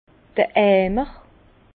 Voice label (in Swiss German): "eimer", Bas Rhin; vin; seau